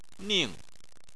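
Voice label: "ning" fourth tone, Chinese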